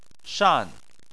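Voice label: "shan" tone 4, Chinese; Chinese Surnames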